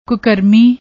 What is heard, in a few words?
"kukarmi", Punjabi; ਕੁਕਰਮੀ